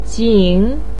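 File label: "jin", Mandarin pinyin, third tone; 锦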